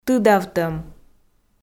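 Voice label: "тыдавтӧм", Komi, female; audio dict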